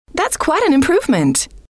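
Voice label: that's quite an improvement, female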